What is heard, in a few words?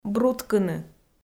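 "бруткыны", Komi, female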